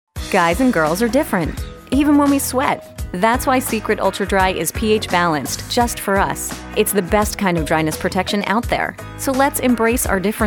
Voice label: Commercial